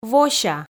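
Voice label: "вося", Komi, female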